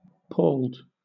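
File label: "palled", British English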